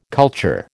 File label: "culture", American